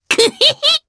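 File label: Vox Happy Japanese; Lakrak; kings raid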